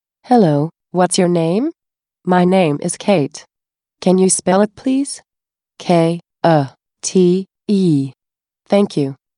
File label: Dialogue can you spell it